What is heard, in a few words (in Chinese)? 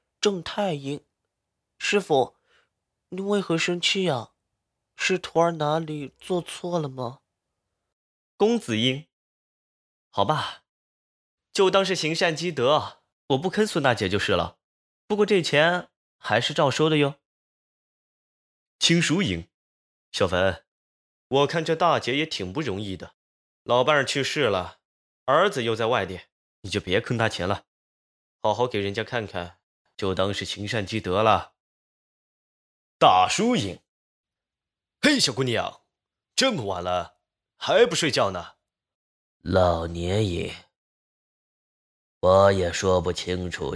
虚无缥缈 角色音 声展 声线